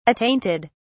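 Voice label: "attainted", English, British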